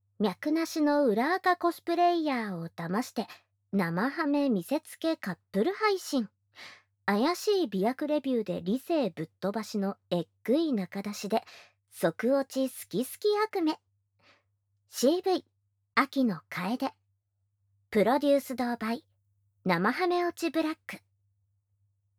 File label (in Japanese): タイトルコール, ASMR